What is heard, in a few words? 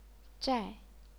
"zhai4", chinese pinyin